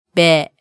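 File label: "be", Japanese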